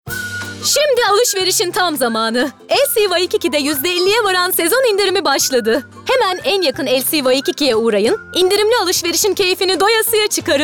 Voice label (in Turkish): Promosyon